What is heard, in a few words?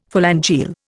"phalangeal", Indian; Dictionary